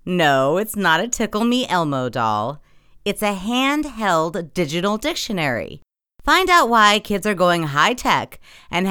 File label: Evening News VO